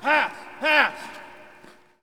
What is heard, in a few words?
Hockey Male "Pass"; Hockey Vocal Sounds